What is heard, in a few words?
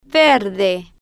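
"verde", Spanish, slow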